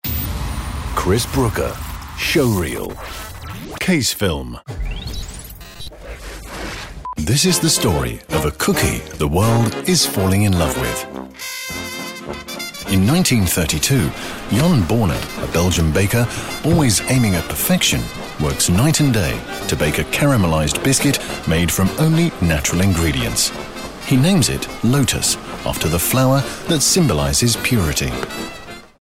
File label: VO showreel casefilm